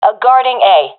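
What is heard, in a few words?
guarding bombsite a, professional female; vo; Counter Strike Model Pack; CS:GO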